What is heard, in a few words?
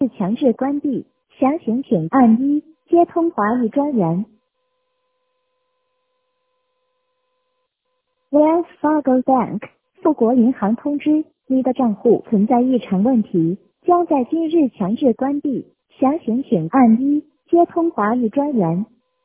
voicemail